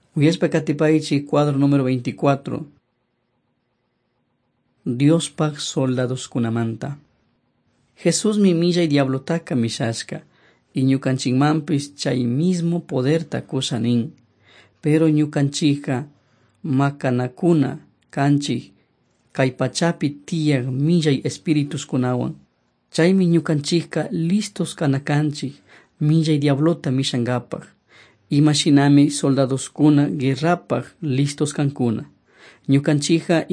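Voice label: quichua chimborazo, drama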